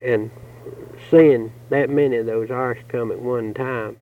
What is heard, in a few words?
Interview with 65 year old white male Mountain Rest South Carolina, american english dialect